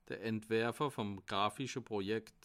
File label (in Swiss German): "entwerfer", alsace